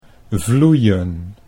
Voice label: "vloeien", Dutch